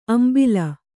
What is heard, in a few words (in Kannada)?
"ಅಂಬಾಲ್", kannada